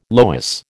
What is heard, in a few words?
"loess", American